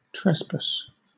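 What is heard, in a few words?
"trespass", British English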